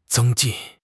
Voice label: "zeng1 jin4", 汉语口语; 汉语口语新时代-初级下

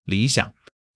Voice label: "理想", 汉语; 汉语口语新时代-初级下

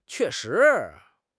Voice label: "que4 shi2", 汉语口语; 汉语口语新时代-初级下

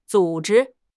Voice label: "zu3 zhi1", 汉语口语; 汉语口语新时代-初级下

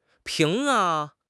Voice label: "ping2", 汉语口语; 汉语口语新时代-初级下

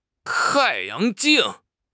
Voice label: "tai4 yang2 jing4", 汉语口语; 汉语口语新时代-初级下

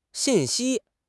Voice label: "xin4 xi1", 汉语口语; 汉语口语新时代-初级下

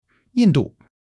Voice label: "Yin4 du4", 汉语; 汉语口语新时代-初级下

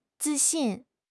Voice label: "zi4 xin4", 汉语口语; 汉语口语新时代-初级下

